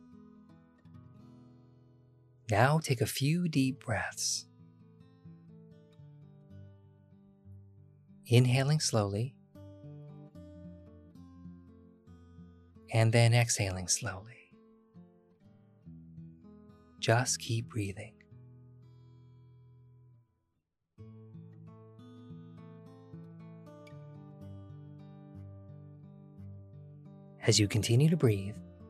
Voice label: progressive muscle relaxation meditation with music